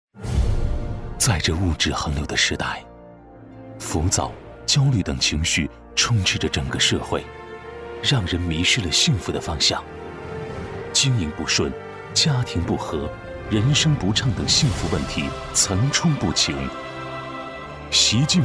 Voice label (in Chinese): 男 集团专题片 大气; 抑扬顿挫; 大气浑厚